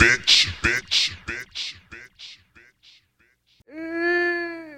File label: "bitch", expletives